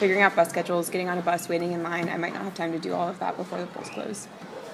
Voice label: FEMALE BROWN UNIVERSITY STUDENT EXPLAINS WHY SHE PROBABLY WILL NOT VOTE TODAY; RI PRIMARY